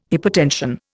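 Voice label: "hypotension", Indian English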